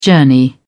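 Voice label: "journey", British English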